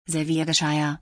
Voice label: Serving dishes in German

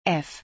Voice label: The letter F in German

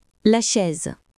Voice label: chair in french

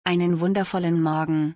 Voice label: Have a wonderful morning in German

